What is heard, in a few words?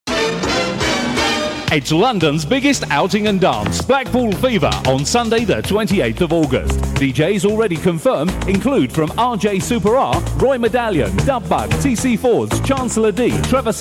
Radio Advert; Blackpool Fever; Horizon Radio Adverts 1981 to 1985